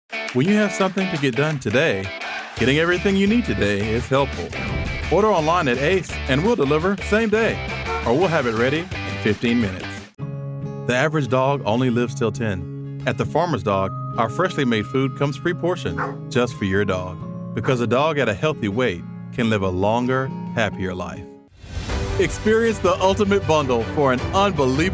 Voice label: Commercial